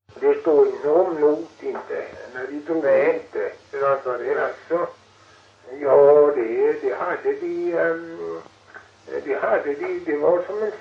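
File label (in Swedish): Hulterstad Öland, svenska sagor dialekter; Folkminnen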